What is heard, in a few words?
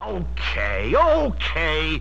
okay okay cartoon